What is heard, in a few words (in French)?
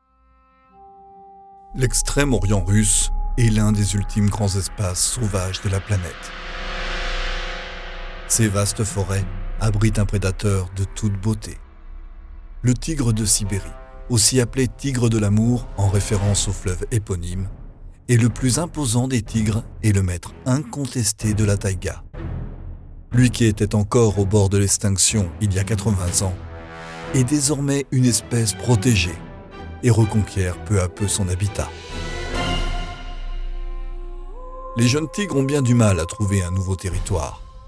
documentaire animalier